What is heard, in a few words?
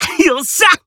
Emo Glad